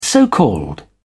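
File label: "so called", British English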